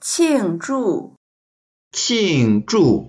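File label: "qing4zhu4", Mandarin Chinese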